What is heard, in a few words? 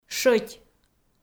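"щӧть", Komi, female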